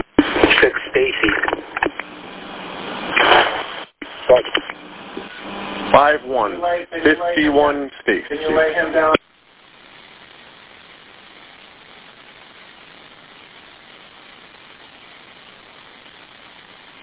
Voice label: Police Radio